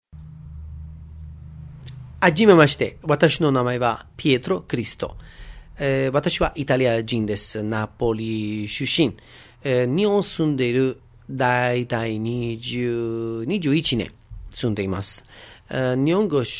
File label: Japanese; Europe